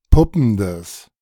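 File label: "puppendes", German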